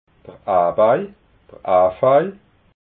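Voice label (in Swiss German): "abai", Alsatian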